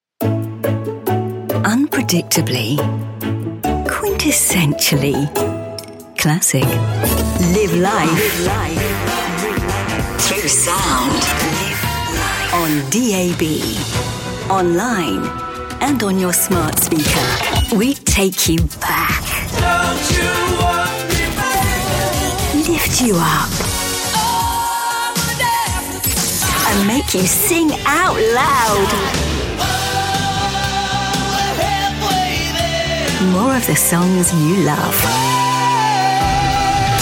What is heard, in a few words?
radio imaging promo